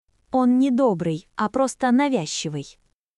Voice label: Russian, russian phrases insults; ロシア語のネガティブなフレーズ